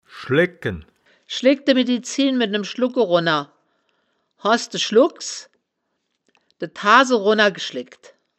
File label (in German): "schlegken", wittlich